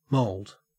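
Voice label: "mould", Australian English; pronunciations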